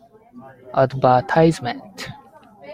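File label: "advertisement", Japanese, male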